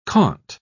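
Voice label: "comte", English, British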